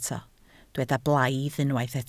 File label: "blaidd", Welsh